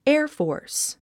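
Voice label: "air force", English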